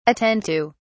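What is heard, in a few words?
"attend to", English, British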